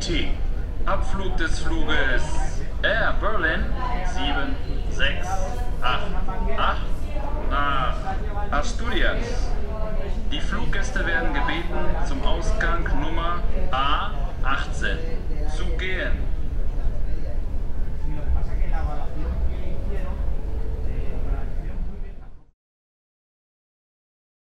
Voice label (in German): Ansage nach Asturias; Air Berlin